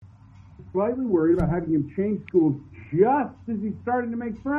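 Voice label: argument; before your eyes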